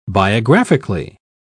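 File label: "biographically", English, uk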